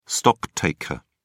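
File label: "stocktaker", English, British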